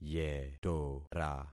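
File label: "yetora", concatenated syllables; Affixing experiment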